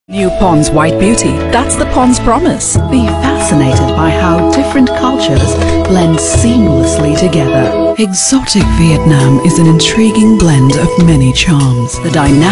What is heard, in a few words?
ENG BRITISH; British English